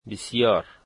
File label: very, Dari